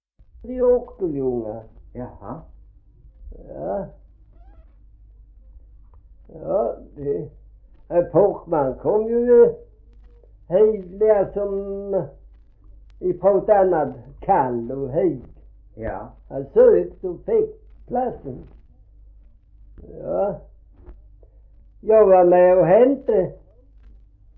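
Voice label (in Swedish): Gråmanstorp Skåne, Svenska sagor dialekter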